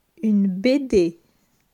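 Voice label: "Une bd", French; vocabulaire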